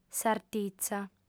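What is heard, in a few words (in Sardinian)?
"sartitza", female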